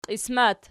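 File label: "qiismat" nominative, Archi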